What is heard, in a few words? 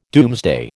"doomsday", American